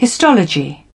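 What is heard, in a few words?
"hire", British English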